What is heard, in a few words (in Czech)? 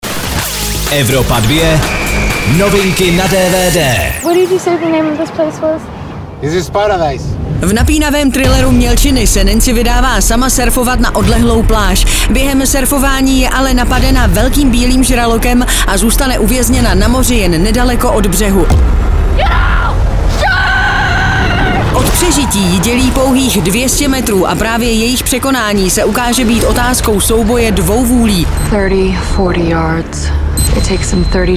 dvd news; evropa2; melciny